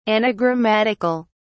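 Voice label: "anagrammatical", British English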